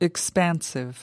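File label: "expansive", US English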